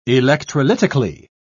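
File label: "electrolytically", British English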